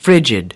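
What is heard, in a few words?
"frigid", English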